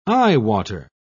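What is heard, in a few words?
"eyewater", British English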